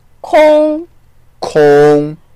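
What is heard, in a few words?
"kong1", Mandarin Chinese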